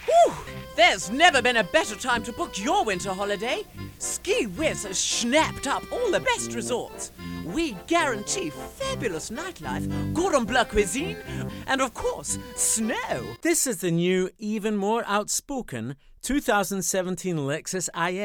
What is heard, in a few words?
Commercial Reel